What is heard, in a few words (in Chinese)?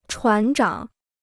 "船长", Chinese